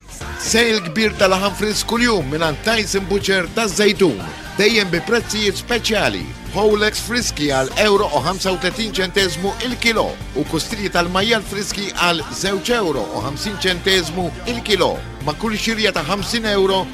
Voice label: Maltese